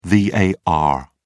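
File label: "var", British English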